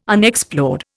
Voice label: "Unexplored", Indian